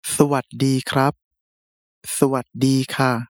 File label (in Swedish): "hej", thai